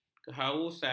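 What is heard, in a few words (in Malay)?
"kehalusan", Malay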